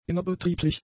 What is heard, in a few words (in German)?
"innerbetrieblich", German; adjectives